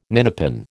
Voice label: "ninepin", American